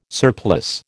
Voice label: "surplice", American